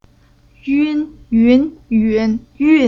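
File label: "yun", Chinese syllable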